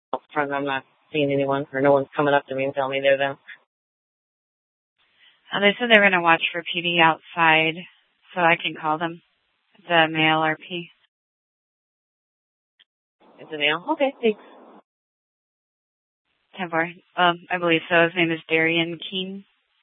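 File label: police dispatch radio, scanner; TOPAZ Regional Wrlss Cooperative (TRWC) - Mesa Simulcast; Mesa PD Dptmnt; 1 Fiesta Dispatch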